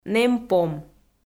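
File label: "нэм пом", Komi, female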